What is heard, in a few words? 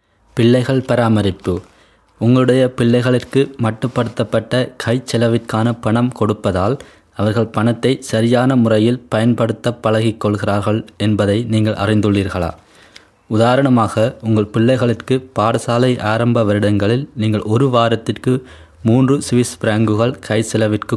tamil